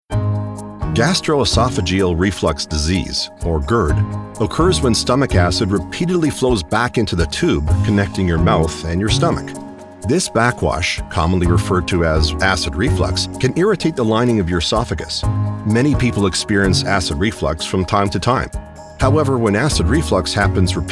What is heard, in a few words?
Medical Narration, eLEARNING; GERD